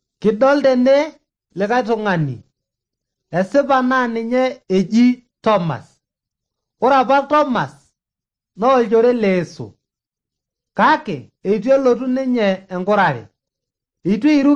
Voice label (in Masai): maasai bsv nt drama; good news; bible